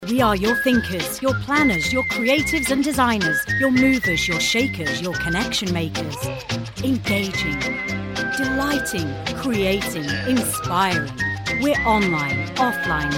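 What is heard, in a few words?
British English, female, commercial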